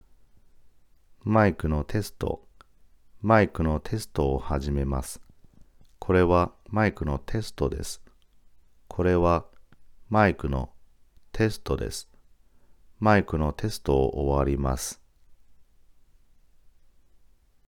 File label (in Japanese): サンプル音声2ピンマイク